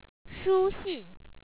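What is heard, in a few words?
"shu1xi4n", Chinese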